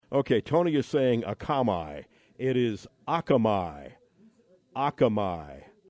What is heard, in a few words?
akamai, pronunciation hawaii